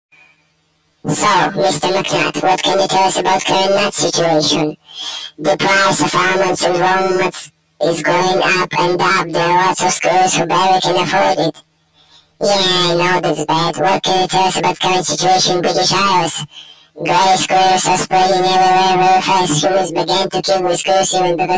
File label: Conversation